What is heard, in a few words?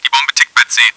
the bombs ticking at c, german; csco; csgo; bot